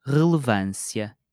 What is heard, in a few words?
"relevância", portuguese